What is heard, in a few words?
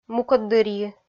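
"мукӧддырйи" Komi, female; kpv audio dict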